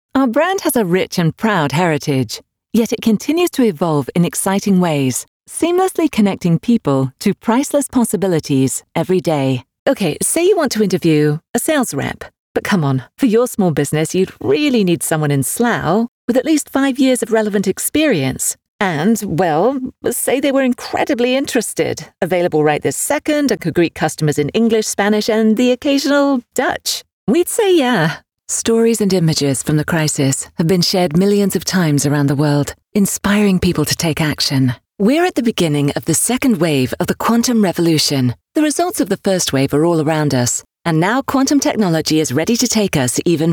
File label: corporate narration